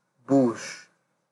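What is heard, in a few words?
"bush", Nigerian Pidgin, male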